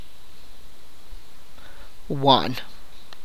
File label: "thief", tlacochahuaya